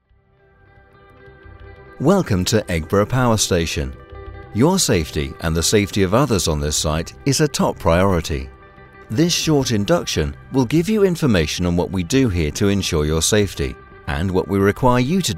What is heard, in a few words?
E-Learn voiceovers